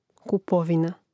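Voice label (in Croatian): "kupovina", Croatian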